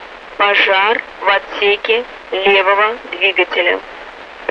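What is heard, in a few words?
Russian speech, Mi-8MT RI65; Messages left engine fire; DCS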